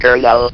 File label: "colonel", votrax; VIDEO GAMES